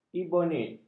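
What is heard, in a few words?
"ebonit", Malay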